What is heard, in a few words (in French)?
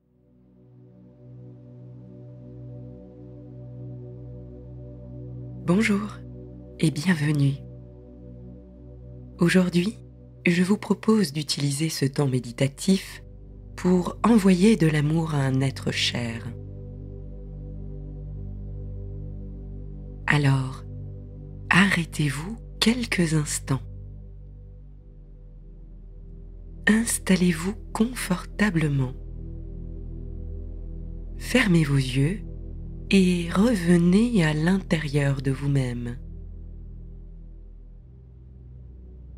Pause meditative; Bulle d amour